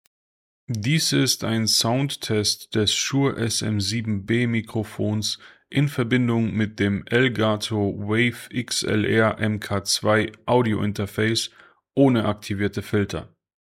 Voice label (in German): Elgato WAVE XLR MK.2 SHURE SM7B mit Filtern